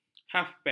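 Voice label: "hafbek", Malay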